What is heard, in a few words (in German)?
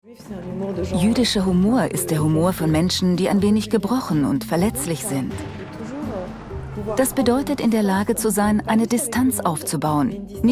Voice Over; Judisches Leben; Arte